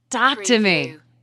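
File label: Woman Says Talk To Me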